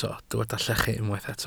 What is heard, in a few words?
"llechu", Welsh